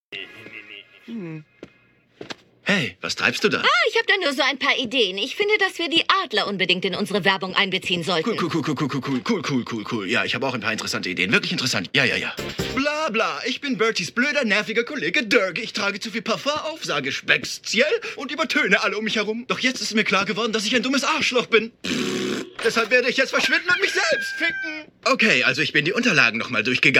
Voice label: DEUTSCH MALE Synchron Serie; Tuca and Bertie; LOFT VOICES

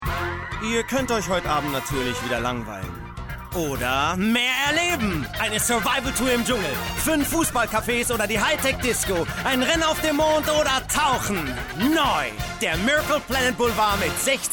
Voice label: Radio Spot

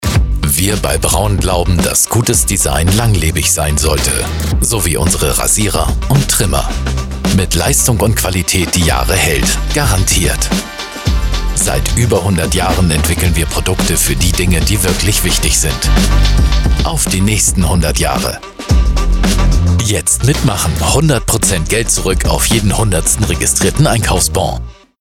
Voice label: TVC; Braun

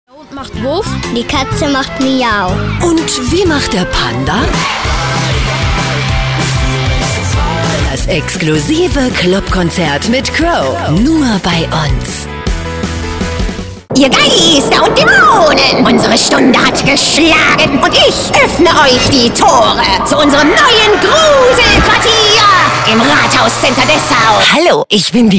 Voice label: Radio Commercials; LOFT VOICES

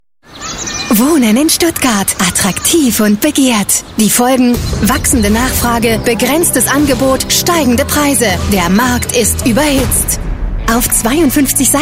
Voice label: Commercial (Werbung), German; Stuttgarter Nachrichten Wohnextra Funk

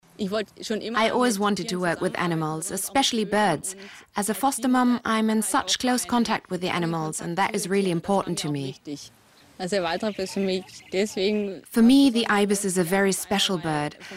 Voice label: ENG Voice Over

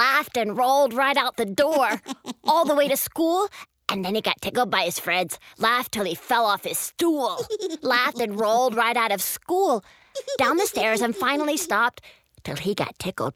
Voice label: Ticklish Tom Cartoon

